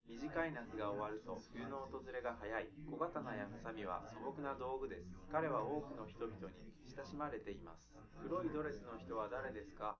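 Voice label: male, live recording; SiSEC11; underdetermined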